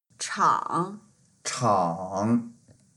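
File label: "chang3", Mandarin Chinese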